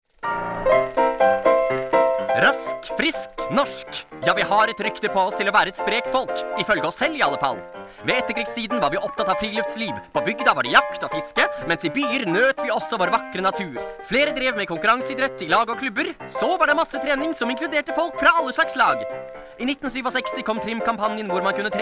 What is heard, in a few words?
norwegian animation